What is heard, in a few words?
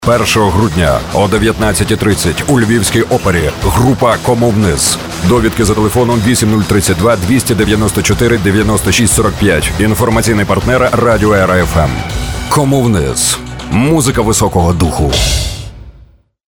ukrainian voiceover locutor ucraniano